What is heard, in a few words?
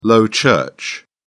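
"low church", British English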